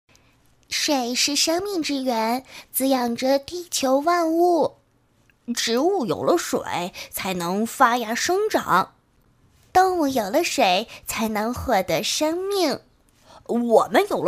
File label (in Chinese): 童声 男童 女童4-5岁